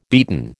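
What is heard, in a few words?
"beaten", American English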